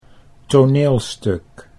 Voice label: "toneelstuk", Dutch

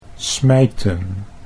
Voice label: "smijten", Dutch; 'to throw angrily'; think of: 'to smite'

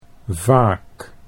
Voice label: "vaak", Dutch

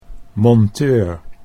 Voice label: "monteur", Dutch

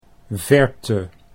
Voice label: "verte", Dutch

